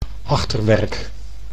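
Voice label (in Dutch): "achterwerk", Dutch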